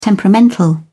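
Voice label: "temperamental", British English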